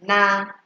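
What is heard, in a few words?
"na", Nigerian Pidgin, female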